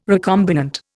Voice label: "recombinant", Indian

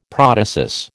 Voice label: "protasis", American

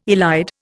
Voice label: "elide", Indian

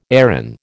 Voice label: "Erin", American

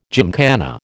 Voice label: "gymkhana", American

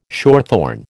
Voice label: "shorthorn", American

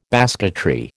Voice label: "basketry", American

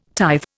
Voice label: "tithe", Indian

